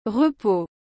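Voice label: "repos", French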